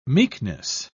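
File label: "meekness", English, British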